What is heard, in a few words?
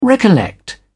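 "recollect", British English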